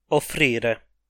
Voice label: "offrire", Italian